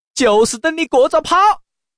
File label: dianpao, changsha man; mahjong xianlai